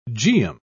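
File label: "geum", British English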